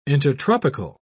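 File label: "intertropical", British English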